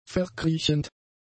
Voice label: "verkriechend", German; adjectives

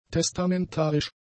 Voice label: "testamentarisch", German; adjectives

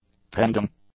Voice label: "pending", English; adjectives

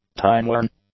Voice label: "timeworn", English; adjectives

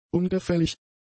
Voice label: "ungefällig", German; adjectives

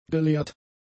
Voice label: "gelehrt", German; adjectives

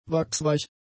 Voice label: "wachsweich", German; adjectives

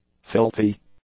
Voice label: "filthy", English; adjectives

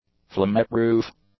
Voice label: "flameproof", english; adjectives

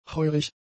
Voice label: "heurig", German; adjectives

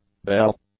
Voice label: "bell", English; nouns

